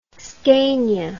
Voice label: "scania", English